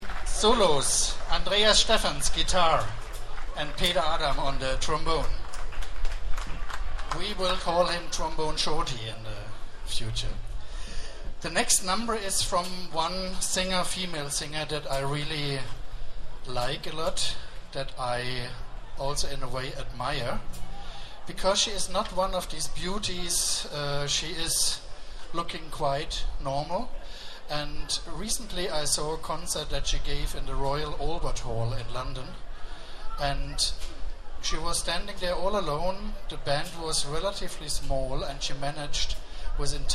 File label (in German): Ansage